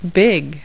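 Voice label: "big", English; My Preschool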